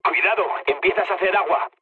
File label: damaged water, mood low, speech; War Thunder